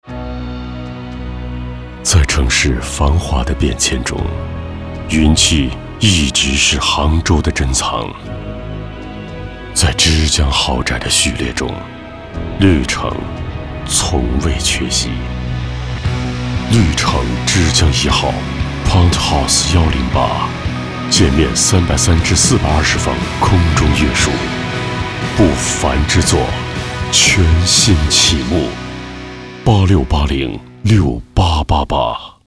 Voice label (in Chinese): 广告,大气,品质; 绿城·之江一号